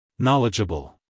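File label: "knowledgeable", American English, male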